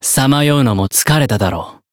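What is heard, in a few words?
Voice Japanese; dunarith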